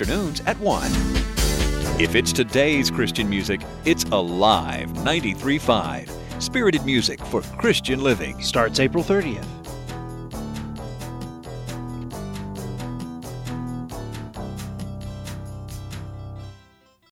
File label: Countdown Promo